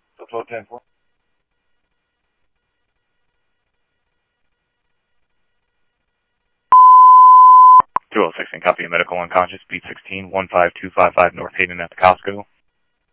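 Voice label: police scanner radio, Scottsdale Police; ThompsonPeakHigh; SCTSDLE PD - PD2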